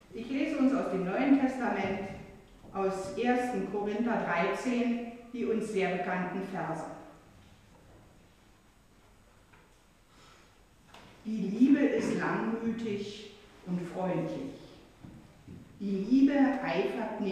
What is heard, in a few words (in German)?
Lesung NT; Gottesdienste zum Nachhören; Misercordias Domini